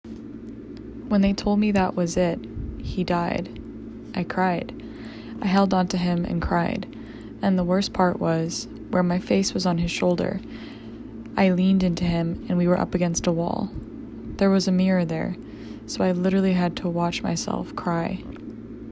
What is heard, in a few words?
testimonial